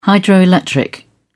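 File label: "hydroelectric", British English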